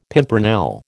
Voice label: "pimpernel", American

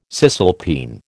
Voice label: "cisalpine", American

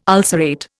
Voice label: "ulcerate", Indian

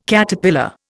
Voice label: "Caterpillar", Indian

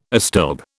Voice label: "astilbe", British

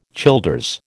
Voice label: "Childers", American

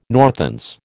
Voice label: "Northants", American

